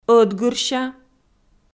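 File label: "ӧтгырся" Komi, female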